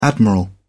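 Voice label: "admiral", British English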